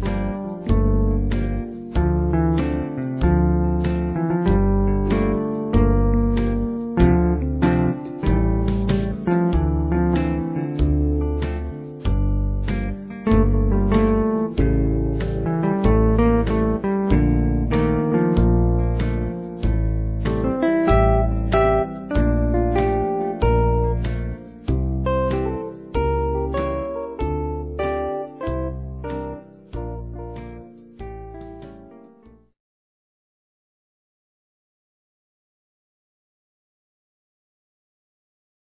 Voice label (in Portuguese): Aniversário Cliente Masculino, Telemensagens; Empresarial; Telemensagens 2017